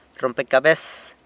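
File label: "rrompecabes", puzzle, San Lucas Quiaviní Zapotec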